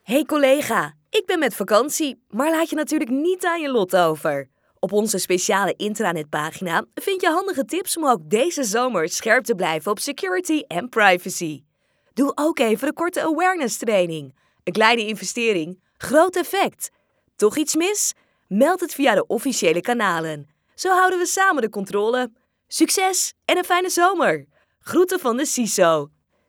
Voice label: voicemail; CISO Summer: peace of mind on vacation thanks to Awareways